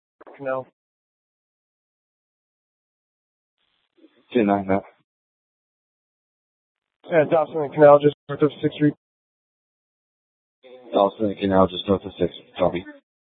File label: Mesa PD Central Dispatch, Scanner